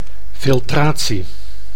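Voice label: "filtratie", Dutch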